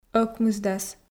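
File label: "ӧкмысдас", Komi, female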